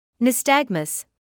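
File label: "nystagmus", British English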